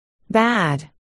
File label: "bad", articulation